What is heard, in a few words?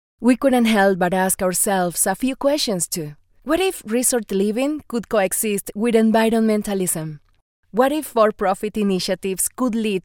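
Latin American Spanish, accented English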